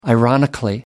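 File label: "ironically", British English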